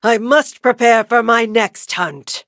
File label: levelup en; cookie run